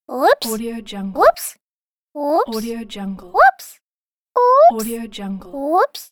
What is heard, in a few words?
oops child cartoon